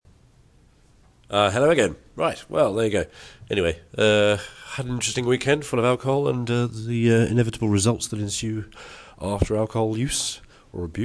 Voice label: garbled

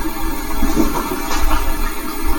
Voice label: fast talker 2 voices

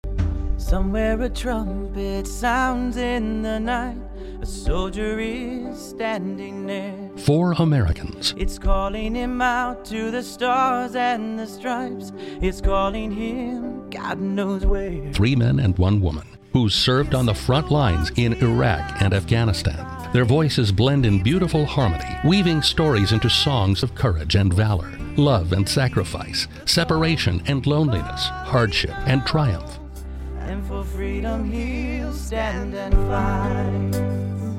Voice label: radio advertising; 4troops beasley coliseum

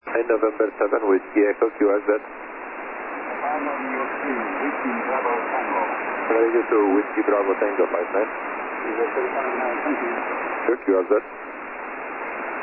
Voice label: 21.195 MHz SSB

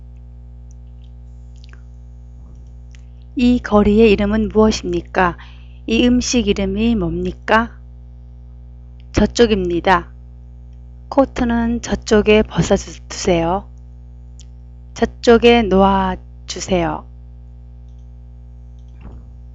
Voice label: korean sentences; anki

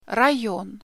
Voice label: "district" in russian

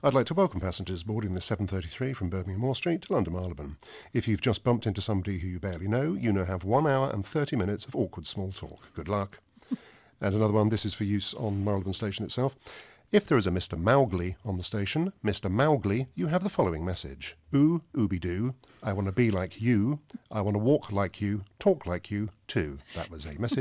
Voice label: train announcements

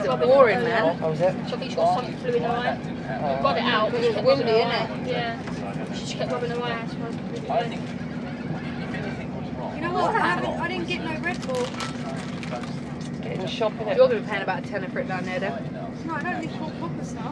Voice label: train chatter

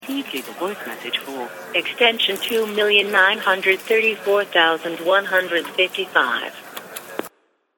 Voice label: voicemail; ux fail

